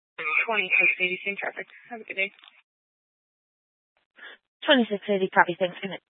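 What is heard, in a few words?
Sheriff Dispatch, Scanner audio recording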